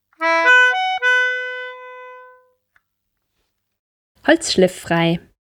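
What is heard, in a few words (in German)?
"holzschlifffrei", German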